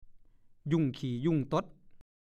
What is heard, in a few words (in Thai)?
"หยุ่งขี่หยุ่งต๊ด", ภาษาโคราช; ยุ่ง ยุ่งเหยิง ยุ่งเหมือนยุงตีกัน